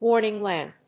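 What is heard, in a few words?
"WARNING LAMP", English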